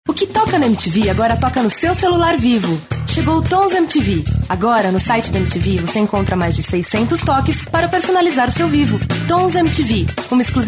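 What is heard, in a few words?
Brazilian Portuguese, female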